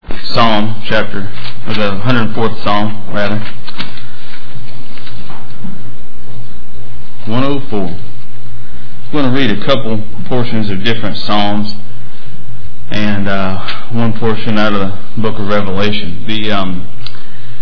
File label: Devotional